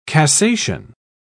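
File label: "cassation", British English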